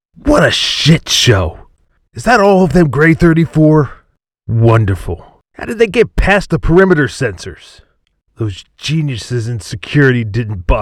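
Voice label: Military Lead Harsh General American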